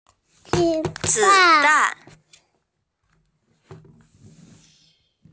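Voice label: "zi dan", Mandarin Chinese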